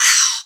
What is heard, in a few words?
VOICE, VOICE-FX